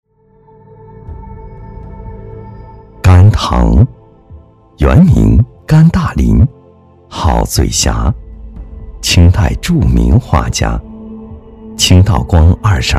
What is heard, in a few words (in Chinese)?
男 专题; 画师甘